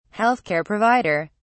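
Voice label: "health care provider", British English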